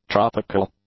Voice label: "tropical", English; adjectives